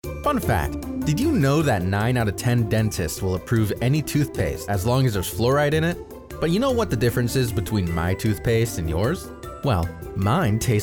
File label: Commercial